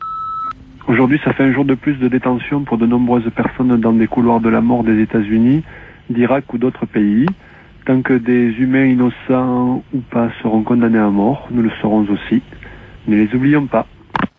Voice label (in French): repondeur; Peine de mort USA